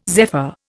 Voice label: "zephyr", Indian

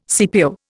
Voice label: "CPO", Indian

